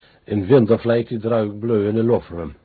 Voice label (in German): niederdeutsch